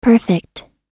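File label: "perfect", American English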